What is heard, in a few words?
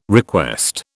"request", British